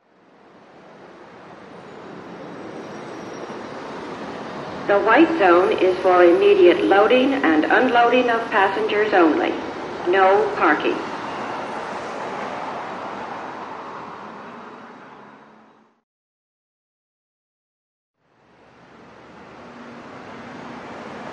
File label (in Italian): Annuncio Aereoporto